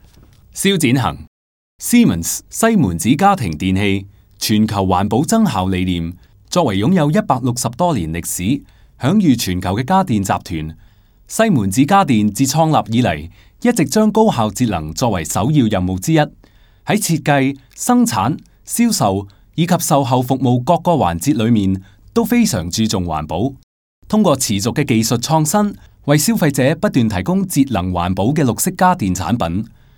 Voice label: Cantonese; Siemens

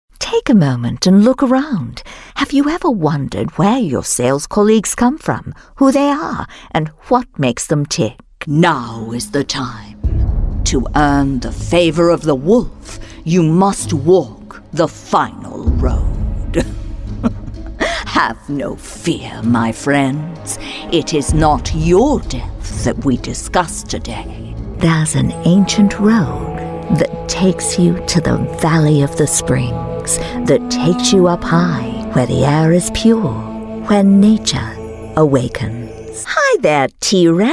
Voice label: UK British, Female

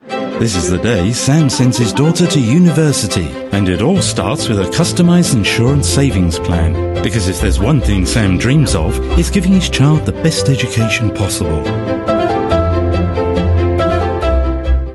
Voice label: UK English; PRU Saver

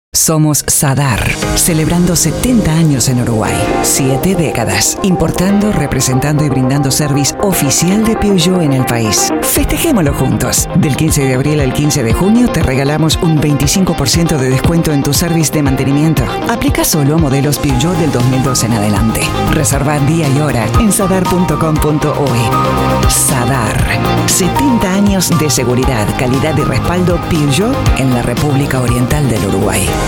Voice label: Latin Spanish; Sadar

